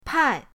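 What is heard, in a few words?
"pai4", Mandarin Chinese, fourth tone; pinyindct-open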